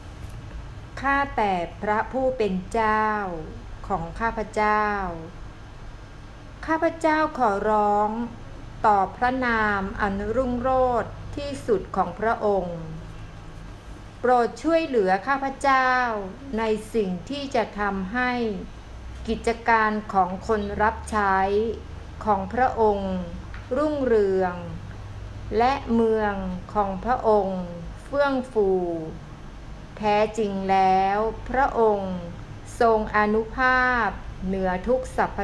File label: prayer thai; cause affairs to flourish